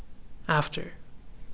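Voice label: "after", Canadian male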